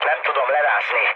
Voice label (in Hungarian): aircraft damaged mood med, hun; speech; War Thunder